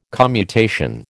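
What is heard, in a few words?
"commutation", American English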